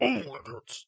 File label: orc pain; Torque3D 3.9rc2